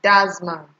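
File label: "dazman", Nigerian Pidgin, female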